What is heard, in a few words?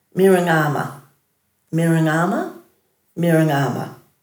"miringama", Dhurga